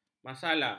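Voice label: "masalla", Malay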